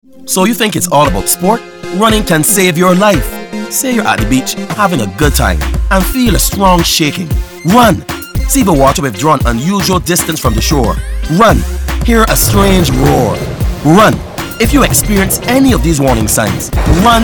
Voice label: TSUNAMI RADIO ENGLISH